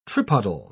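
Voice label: "tripodal", British English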